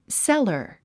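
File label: "cellar", English word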